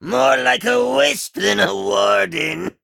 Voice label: Male Imp Play

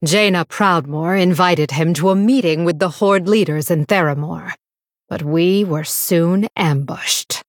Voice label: Female Blood Elf; Hero; Valeera; Pre Mission5

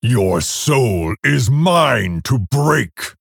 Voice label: Male Orc Threaten

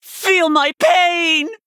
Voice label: Nonbinary Night Elf Attack; Warrior

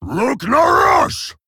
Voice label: Male Orc

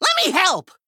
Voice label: Male Goblin, Attack

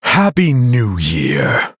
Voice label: Male Human HAPPY NEW YEAR LUNAR

